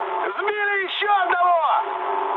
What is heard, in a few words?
player killed enemy, mood med, speech ru